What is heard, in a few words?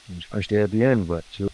Voice Acting, es